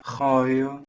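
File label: "h2ouio", Proto-Indo-European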